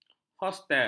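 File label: "hostel", Malay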